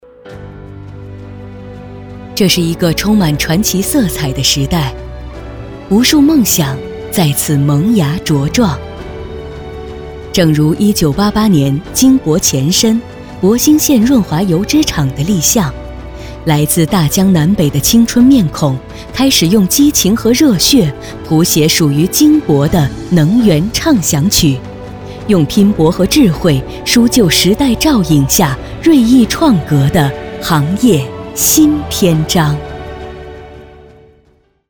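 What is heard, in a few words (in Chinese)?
专题 企业介绍 浑厚, 女, 普通话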